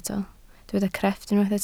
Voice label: "crefft", Welsh

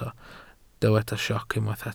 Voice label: "sioc", Welsh

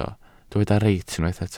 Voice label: "reit", Welsh

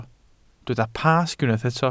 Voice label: "Pasg", Welsh

